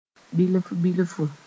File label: "bilf-bilfu", moore